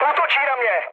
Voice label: aircraft damaged, speech cze, mood low